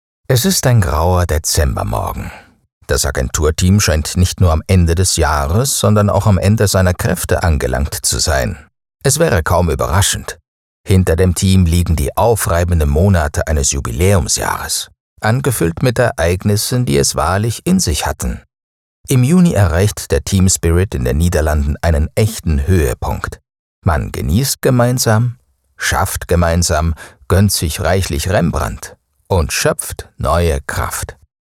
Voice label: german explainer videos

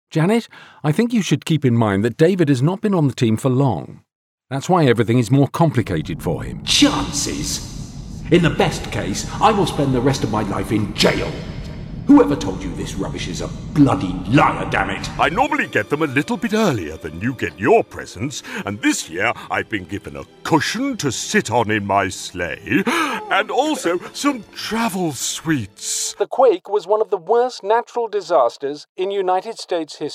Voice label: english british

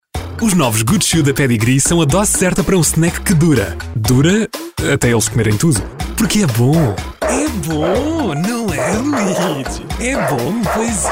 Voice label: portuguese commercial

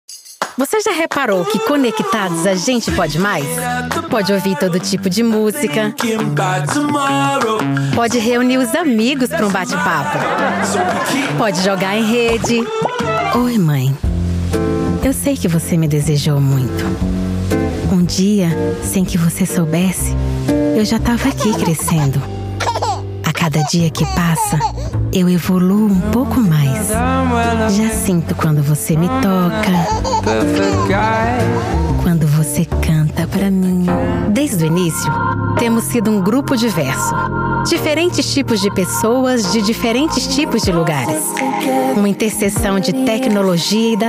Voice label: Portuguese Brazilian commercial

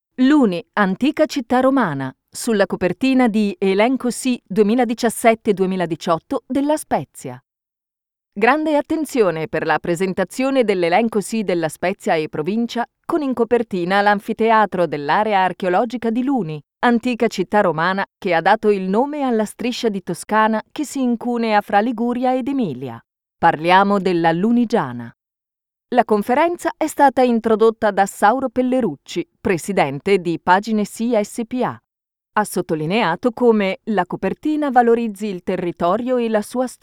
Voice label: italian others

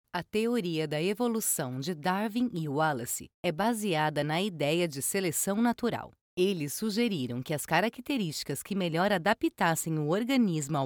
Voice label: portuguese brazilian documentaries

